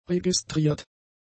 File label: "registriert", German; adjectives